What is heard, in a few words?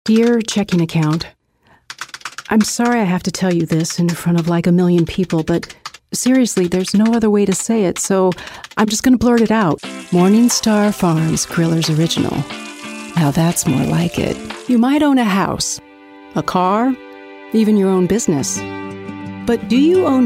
female voice